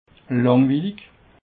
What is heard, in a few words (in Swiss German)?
"langwillig", Alsace